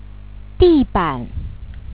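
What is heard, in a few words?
"di4ban3", Chinese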